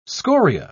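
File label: "scoria", British English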